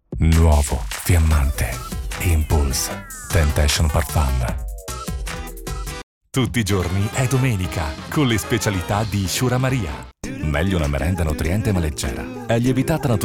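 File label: Italian male Commercial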